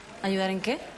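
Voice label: VoiceActing Spanish, female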